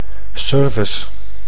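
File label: "service", Dutch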